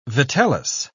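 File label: "vitellus", English, British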